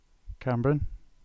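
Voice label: "Camborne", English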